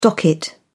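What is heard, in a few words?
"docket", British English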